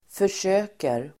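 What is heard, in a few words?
"försöker", Swedish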